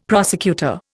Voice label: "prosecutor", Indian; Dictionary

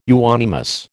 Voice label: "euonymus", American

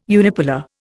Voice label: "unipolar", Indian

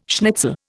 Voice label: "schnitzel", Indian

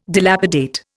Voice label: "dilapidate", Indian

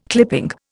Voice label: "clipping", Indian

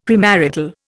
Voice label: "premarital", Indian; Dictionary

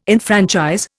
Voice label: "enfranchise", Indian

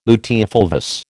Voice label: "luteofulvous", American

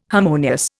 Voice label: "harmonious", Indian

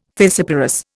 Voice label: "fissiparous", Indian

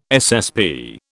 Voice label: "SSP", British

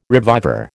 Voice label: "reviver", American

